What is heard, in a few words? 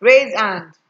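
"raisehand", Nigerian Pidgin, female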